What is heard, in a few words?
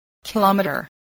"kilometer", English